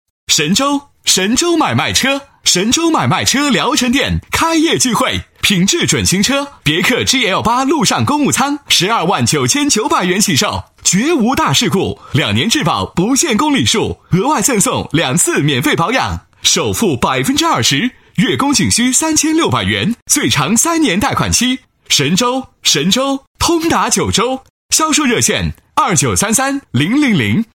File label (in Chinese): 男声 磁性 广告; 神州买卖车